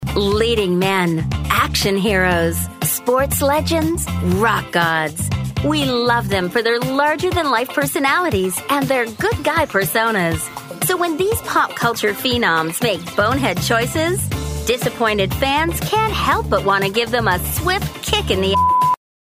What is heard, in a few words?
Leading men, narration